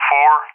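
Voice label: "4", English speech, Tanker Digits; DCS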